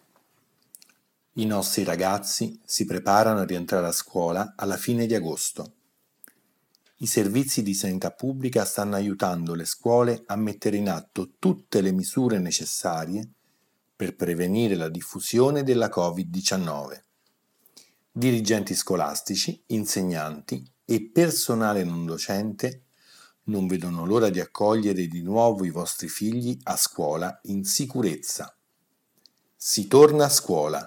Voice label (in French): Message Italien